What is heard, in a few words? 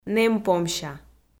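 "нэмпомся", Komi, female; audio dict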